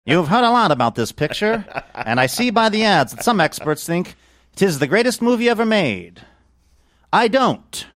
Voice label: you've heard a lot about this picture, old timey newsreel voice